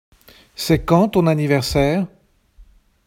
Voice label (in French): pronunciation fr c'est quand ton anniversaire